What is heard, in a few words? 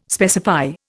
"specify", Indian